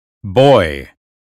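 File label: "boy", American phonetics; 英语音标发音（剑桥版）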